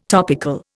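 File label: "topknot", Indian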